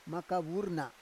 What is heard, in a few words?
"maka vur na", Roon